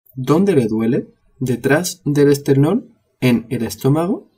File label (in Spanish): "esternon" spanish